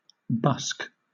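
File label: "busk", British English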